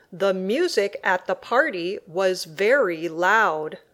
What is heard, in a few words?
loud sentence